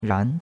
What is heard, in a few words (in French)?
"ran2", chinois; Cours-de-Chinois